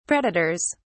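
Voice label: "predators", British English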